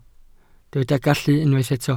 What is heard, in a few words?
"gallu", Welsh